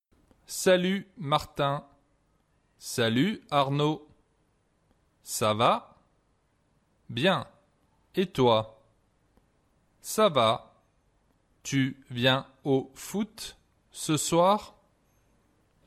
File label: Conversation, French